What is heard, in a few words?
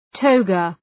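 "toga", English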